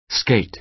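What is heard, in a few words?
"skate", American English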